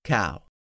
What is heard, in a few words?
"cow", English; 3000 words